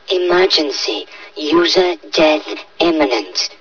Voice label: near death; hl2; fvox

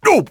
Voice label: pain, citizen; hl2

